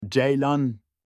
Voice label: deer in turkish